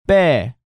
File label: "Ppae", Korean